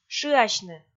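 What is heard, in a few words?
"шыасьны", Komi, female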